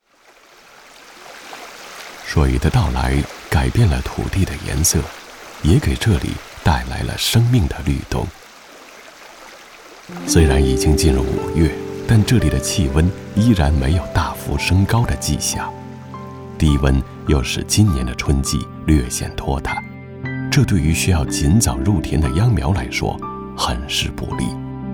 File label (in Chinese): 纪录片 水 自然流畅